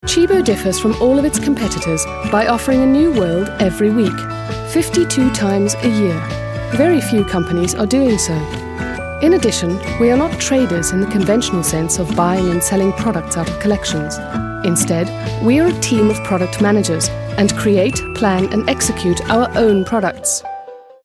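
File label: British commercial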